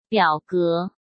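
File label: "表格", Mandarin Chinese; chinese flashcards; hsk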